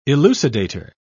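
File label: "elucidator", British English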